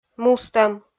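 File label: "мустӧм", Komi, female; kpv audio dict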